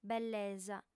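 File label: "bellesa", lingua sarda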